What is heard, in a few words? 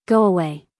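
"Go away", American English female; everyone-can-use-english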